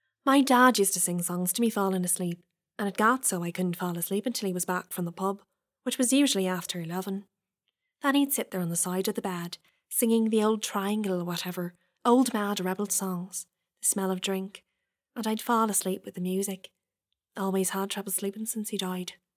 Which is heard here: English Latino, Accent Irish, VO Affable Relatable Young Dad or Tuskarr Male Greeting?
Accent Irish